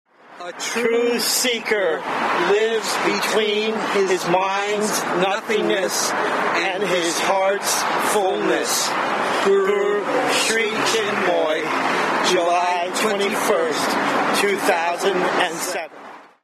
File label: poem